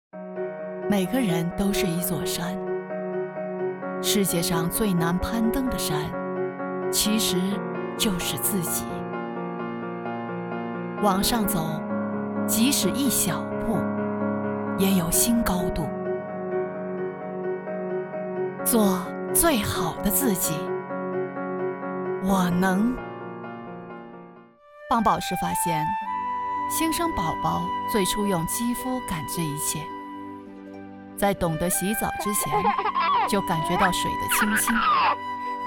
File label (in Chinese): 广告片